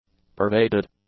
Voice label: "pervaded", english; adjectives